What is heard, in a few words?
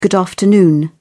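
"good afternoon", British English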